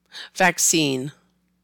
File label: "Vaccine", american english